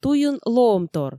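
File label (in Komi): туйын лоӧмтор, Komi, female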